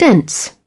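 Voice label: "dense", British English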